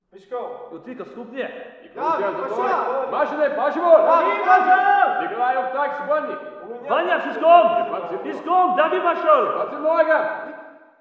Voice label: walla rus mountain battle